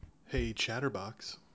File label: hey chatterbox, en us